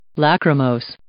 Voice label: "lachrymose", English